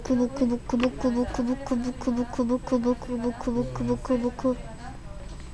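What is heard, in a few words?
"book", spoken word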